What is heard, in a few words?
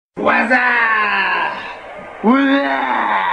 Wazzup Sms Tone